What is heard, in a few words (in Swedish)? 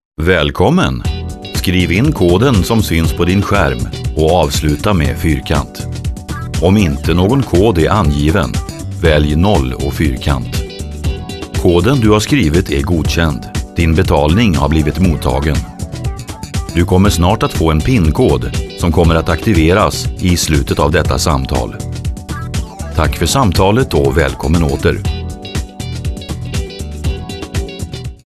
Telepromptar med musik